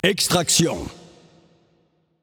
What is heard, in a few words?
Announcer French Game Mode Extraction